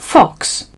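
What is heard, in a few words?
"fox", British English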